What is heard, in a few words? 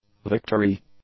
"victory", English; nouns